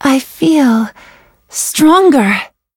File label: level up, en